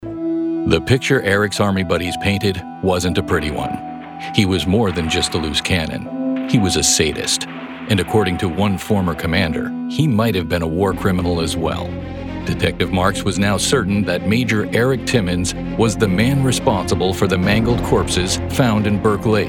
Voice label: TV NARRATION, Voice Over

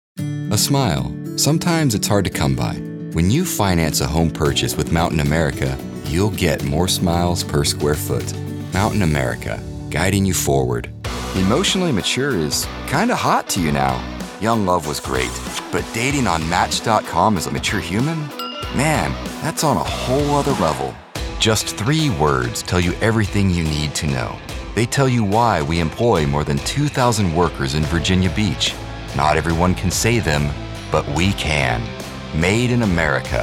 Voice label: Commercial

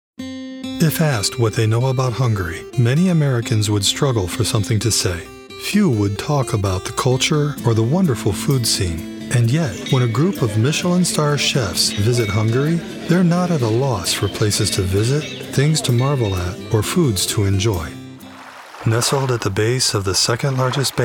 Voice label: Narration

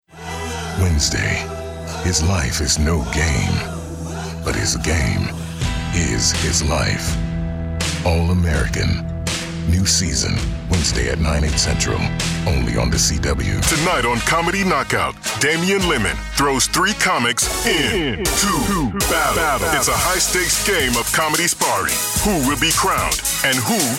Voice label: Promo

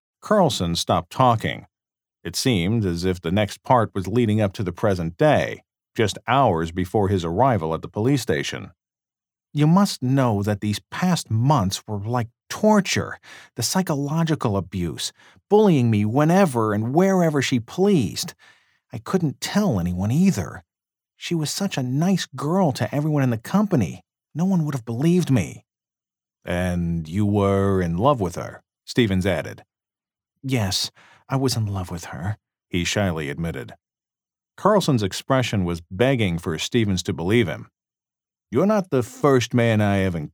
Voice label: Audiobook Samples

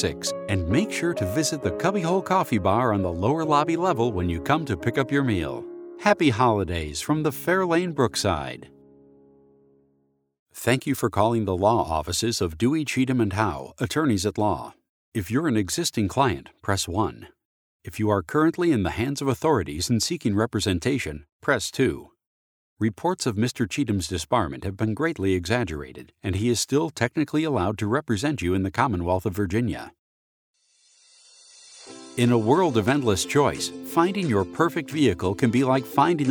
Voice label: IVR With Effects

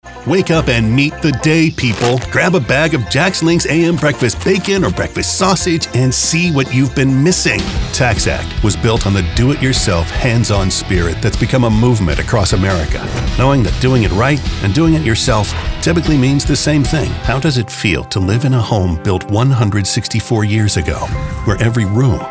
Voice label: Commercial, Voice Over

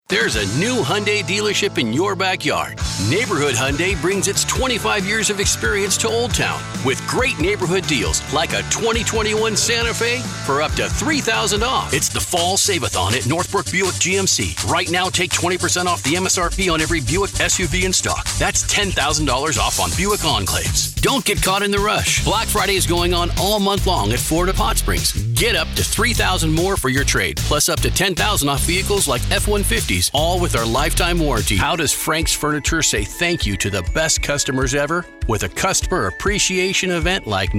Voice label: Retail Local Regional, Voice Over

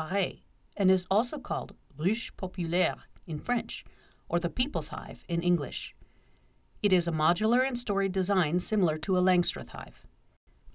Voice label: Narration eLearning explainer; Wikipedia Bee Hive Article

